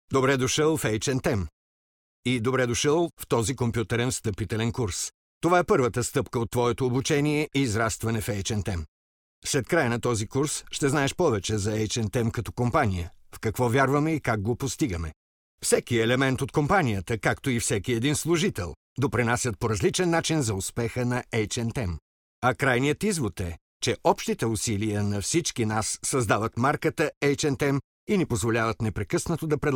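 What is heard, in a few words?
Bulgarian, male, e-learning; voice demos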